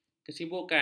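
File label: "kesibukan", Malay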